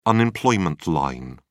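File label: "unemployment line", British English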